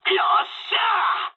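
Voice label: bomb success mood med, speech japanese; War Thunder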